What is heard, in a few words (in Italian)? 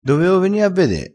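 "doveo", dialettale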